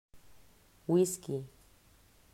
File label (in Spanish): "Wisky", pronunciacion; mausschool